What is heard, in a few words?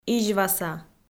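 "изьваса", Komi, female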